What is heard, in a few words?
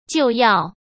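"就要" Mandarin Chinese; chinese flashcards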